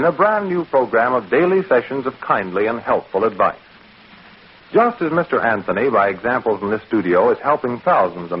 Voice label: Commercials Arid Deodorant

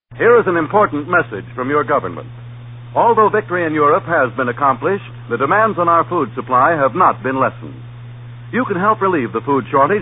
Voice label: Commercials Psa Home Canning

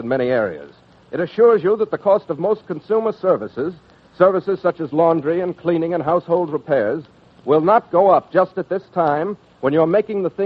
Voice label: Commercials Psa Price Controls

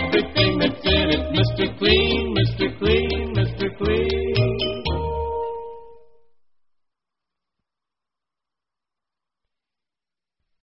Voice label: Commercials Mr. Clean, Mr. Clean

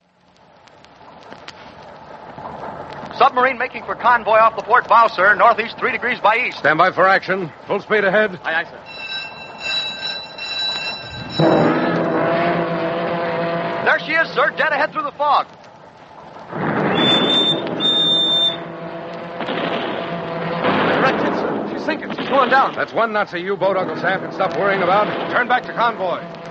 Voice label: Commercials Psa Sugar And Gas Rationing

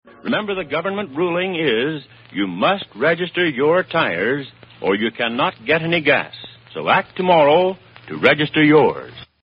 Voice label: Commercials Psa Tire Registration

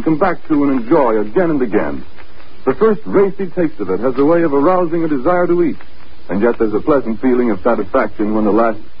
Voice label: Commercials Campbell Soup

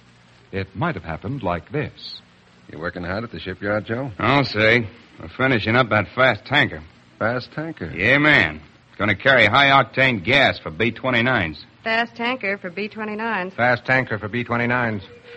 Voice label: Commercials Psa Careless Talk

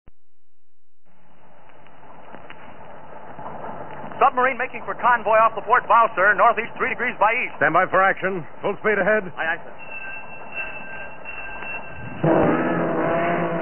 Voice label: Commercials Psa Help Save Ships

